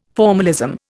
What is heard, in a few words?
"formalism", Indian